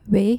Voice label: "wei", Dutch